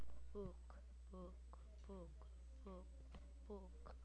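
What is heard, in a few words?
"book", spoken word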